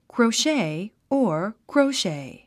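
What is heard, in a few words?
"crochet", English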